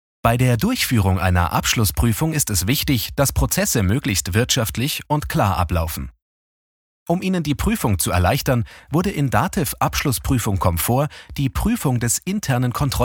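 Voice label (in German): e-learning tutorial gut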